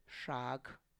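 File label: "xag", Tlacochahuaya Zapotec; cheek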